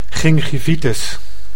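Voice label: "gingivitis", Dutch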